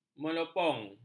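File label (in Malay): "melopong", Malay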